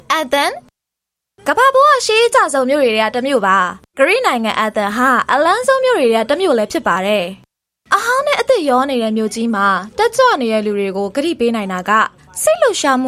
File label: Burmese Female